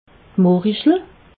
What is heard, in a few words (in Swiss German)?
"morichel", alsace